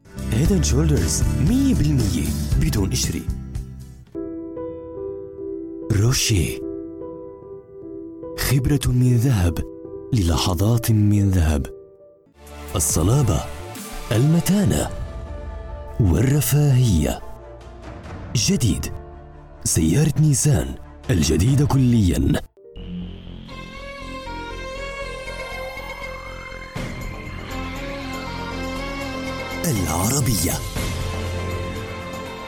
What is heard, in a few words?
Arabic male